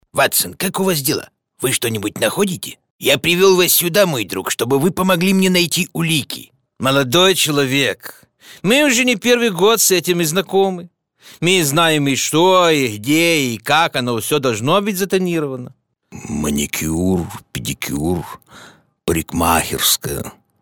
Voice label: RUS; audioreklama